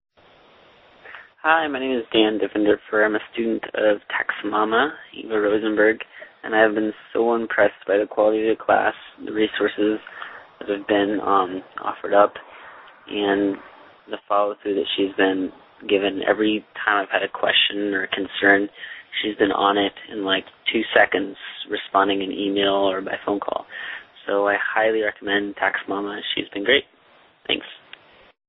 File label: Testimonial